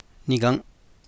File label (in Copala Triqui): "niga̱n'", Copala Triqui